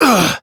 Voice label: Pain; Warframe; Entrati Lab Quest; 2023 TennoCon; Arthur

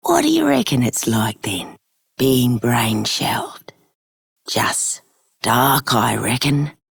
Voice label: Female Worker; Dialog; Warframe; Solaris Venus

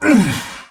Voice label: Pain Heavy; Warframe; Dax Heavy; Battle Barks

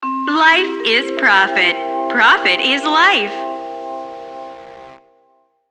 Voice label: PA System, en; Warframe; Solaris Venus

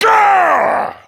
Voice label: Angry; Warframe; Tyl Regor Boss; Efforts

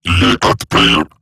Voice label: Player Spotted, Robot Large

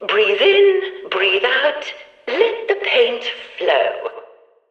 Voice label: Background Announcements

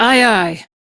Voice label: Crew Follow, Steel Meridian Female; Dialog; en; Warframe; RailjackCrew

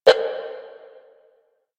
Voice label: Static, Battle Barks; Warframe; Lasrian; Lasrian Enemy C

